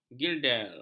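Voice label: "girdel", Malay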